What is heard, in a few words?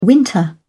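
"winter", British English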